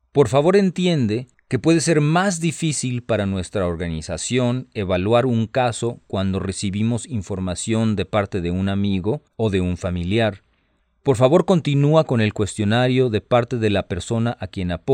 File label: Spanish, hotline